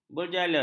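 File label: "berjala", Malay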